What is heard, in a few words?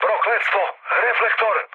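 Serbian searchlite mood med; War Thunder; speech srb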